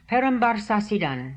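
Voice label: "Perambarrsasidaan", pronunciations; Fourth Way; Beelzebub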